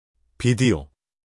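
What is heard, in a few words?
"video", Korean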